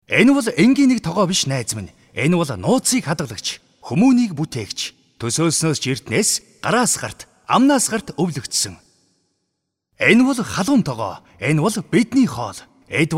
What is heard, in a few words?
Dubbing